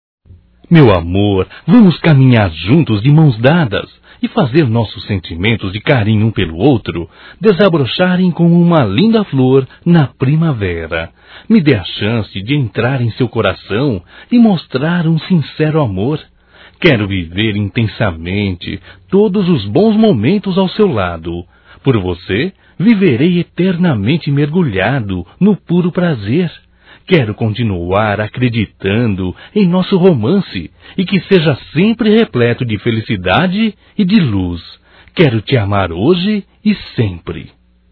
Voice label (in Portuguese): Romântico Masculino, Telemensagens